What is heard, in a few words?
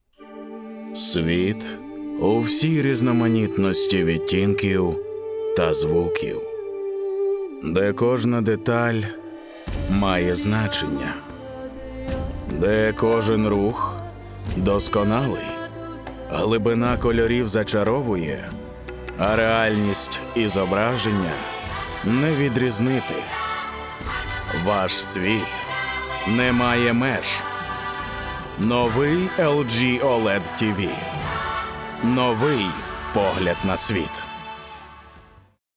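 ukrainian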